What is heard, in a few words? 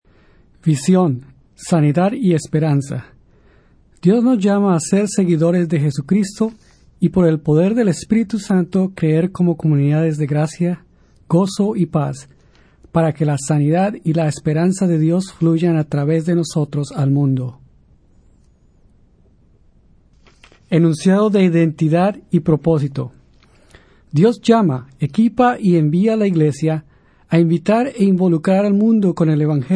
Spanish; Purpose Spoken Versions